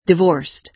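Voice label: "divorced", American English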